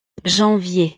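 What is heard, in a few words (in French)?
"janvier", French; los meses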